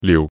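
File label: "liu1", Cantonese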